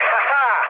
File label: trick, speech cze, mood low; War Thunder